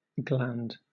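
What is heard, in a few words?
"gland", British English; pronunciations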